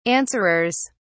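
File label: "answerers", British English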